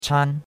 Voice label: "chan1", chinois; Cours de Chinois

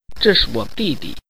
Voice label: Mandarin Conversation

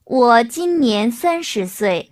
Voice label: Mandarin Conversation

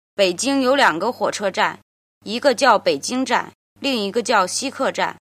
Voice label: "jin", Chinese

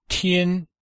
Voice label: "tian", Chinese

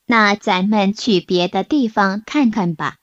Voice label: Mandarin Conversation

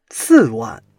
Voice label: "si4 wan4", Chinese

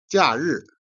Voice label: "jian", Chinese

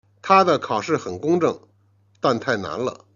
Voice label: "jian", Chinese

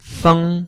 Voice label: "feng", Chinese

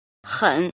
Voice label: "jin", Chinese

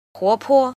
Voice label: "jin", Chinese

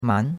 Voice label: "man2", chinois; Cours-de-Chinois

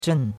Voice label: "zhen4", chinois; Cours-de-Chinois

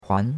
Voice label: "huan2", chinois; Cours de Chinois

